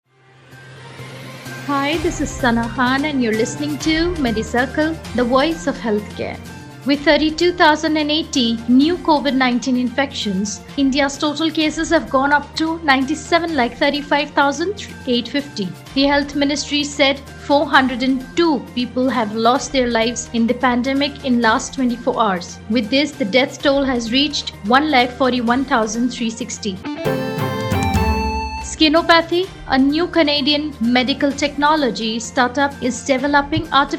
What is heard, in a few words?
english news